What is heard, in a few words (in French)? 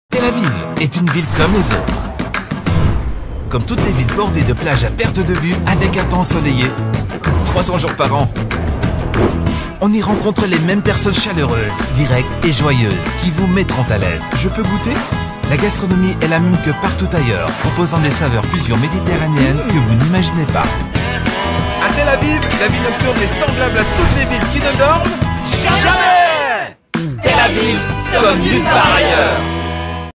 pub tv